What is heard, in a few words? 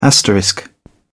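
"asterisk", British English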